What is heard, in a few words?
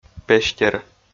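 "пестер", Komi, male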